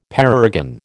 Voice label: "parergon", American